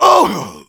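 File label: Engineer pain sharp, German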